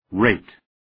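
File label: "rate", English word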